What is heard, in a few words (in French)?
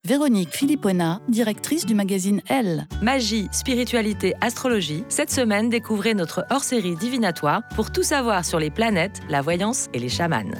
SPOT RADIO; 93 Faubourg Saint-Honoré – 20 ANS; Paris Première